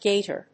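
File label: "gaiter", English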